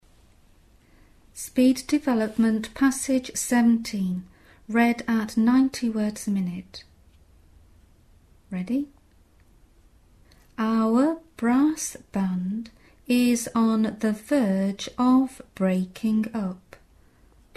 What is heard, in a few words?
90wpm; Teeline